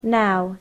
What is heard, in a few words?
"now", english